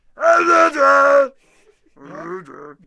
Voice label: Ballista Attack Vocals